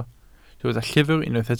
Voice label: "llyfr" Welsh

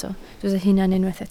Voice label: "hunan" Welsh

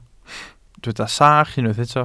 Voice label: "sach", Welsh

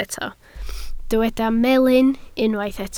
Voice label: "melyn", Welsh

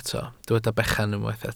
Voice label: "bechan", Welsh

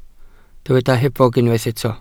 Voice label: "hebog" Welsh

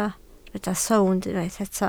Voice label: "sownd" Welsh

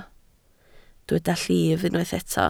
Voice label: "llif", Welsh

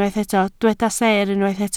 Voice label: "seer", Welsh

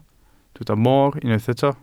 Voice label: "moor", Welsh

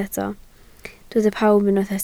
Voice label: "pawb", Welsh

